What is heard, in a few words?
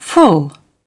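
"full", British English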